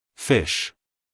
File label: "fish", us male